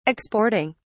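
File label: "exporting", British English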